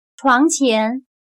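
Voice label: "床前", Chinese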